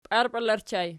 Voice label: "piarpela", Archi